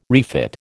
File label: "refit", American